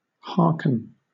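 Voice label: "harken", British English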